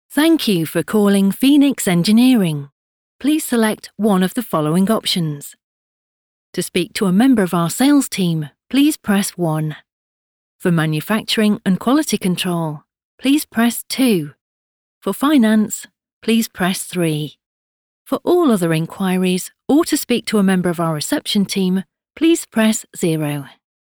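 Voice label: english british interactive voice response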